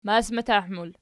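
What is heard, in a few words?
"masmatah" plural, Archi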